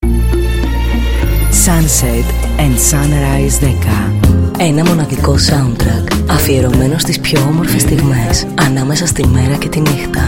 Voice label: Radio Spot; Sunset Sunrise 11